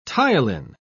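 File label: "ptyalin", British English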